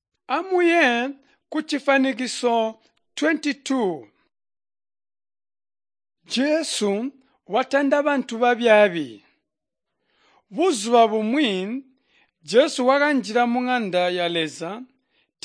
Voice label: tonga plateau, drama; chitonga bsz nt drama